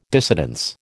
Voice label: "dissidence", American